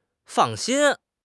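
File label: "fang4 xin1", Chinese; HSK3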